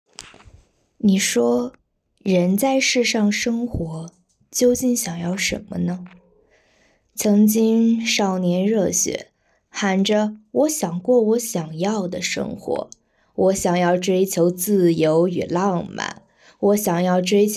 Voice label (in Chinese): 少年